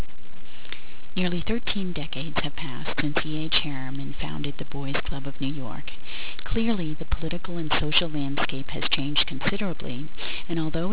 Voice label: Narration Voiceover Sample